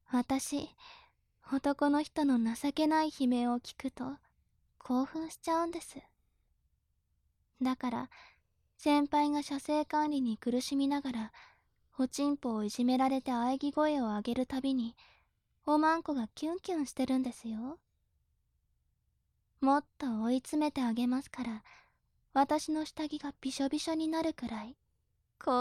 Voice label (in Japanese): 調教中音声